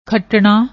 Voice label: "khatna", Punjabi; ਖੱਟਣਾ